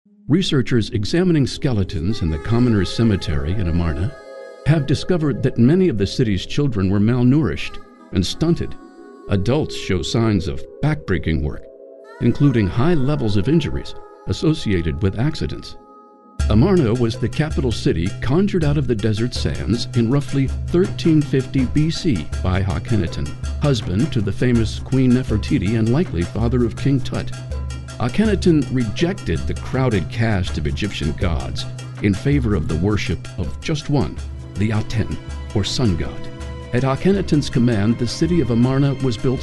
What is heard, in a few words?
narration